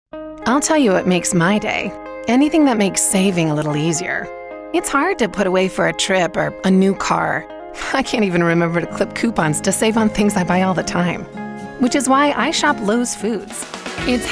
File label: Commercial VO